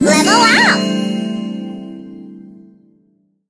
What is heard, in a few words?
level up en